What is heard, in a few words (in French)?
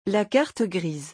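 "la carte grise", French; vocabulaire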